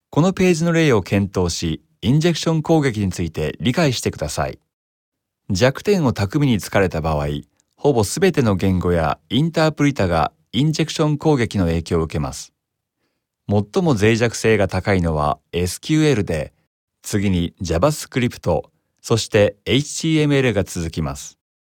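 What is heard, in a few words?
Japanese narration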